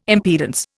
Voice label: "impedance", Indian